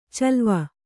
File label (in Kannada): "ಚಲುವೆ", Kannada